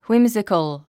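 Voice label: "whimsical", English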